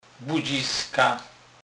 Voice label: budziska, Kurpie; slownik